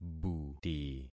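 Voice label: "buti", concatenated syllables; Affixing experiment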